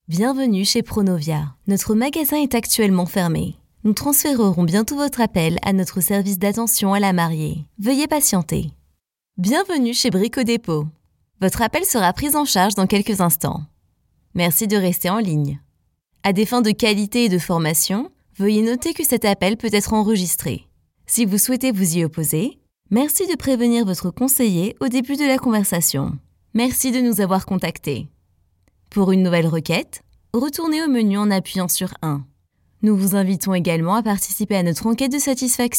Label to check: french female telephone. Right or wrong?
Right